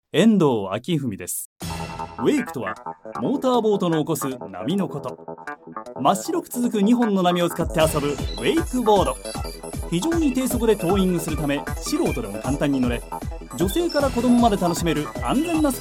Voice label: male VP